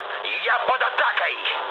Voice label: aircraft damaged, mood low, speech ru

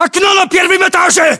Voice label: location first floor window, ru; localized english

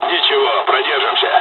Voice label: dialogs russian; War Thunder

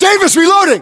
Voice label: US inform reloading, battlechatter, english

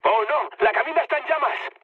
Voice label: on fire mood low; War Thunder

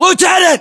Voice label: US rank lieutenant, battlechatter, english

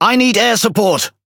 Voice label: Speech Quick Commands Need Support Plane, EN GB; World Of Warships

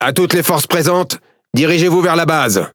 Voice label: Speech Quick Commands Enemy Base, FR; World Of Warships

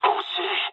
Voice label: bomb failure mood low, speech jp; War Thunder

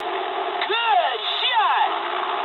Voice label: eng player killed enemy mood med, speech eng

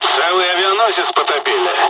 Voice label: dialogs russian; War Thunder

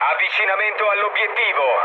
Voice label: near waypoint mood low, speech it; War Thunder

